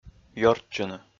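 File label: "ёрччыны", Komi, male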